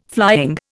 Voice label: "flying", Indian

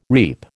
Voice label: "reap", American

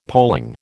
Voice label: "polling", American

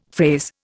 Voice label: "phrase", Indian; Dictionary

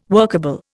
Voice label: "workable", Indian

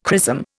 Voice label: "chrism", Indian

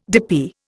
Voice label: "dippy", Indian

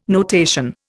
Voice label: "notation", Indian

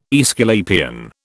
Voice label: "Aesculapian", British

